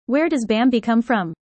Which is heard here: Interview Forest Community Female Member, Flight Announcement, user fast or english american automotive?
user fast